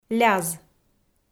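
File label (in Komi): "ляз", Komi, female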